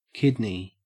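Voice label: "kidney", Australian English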